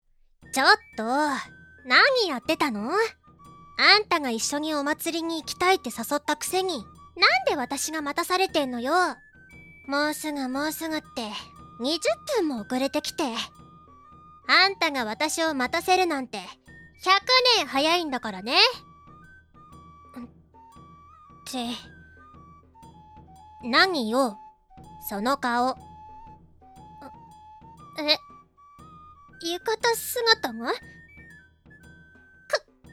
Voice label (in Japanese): ツンデレ幼馴染, ASMR